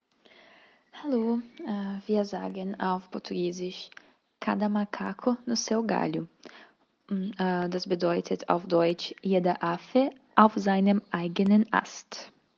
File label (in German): Portugiesisch